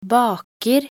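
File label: "baker", Norwegian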